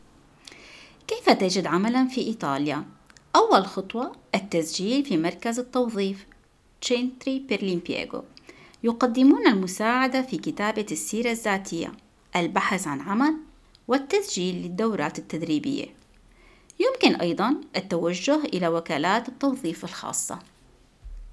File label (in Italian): come trovare lavoro, arabo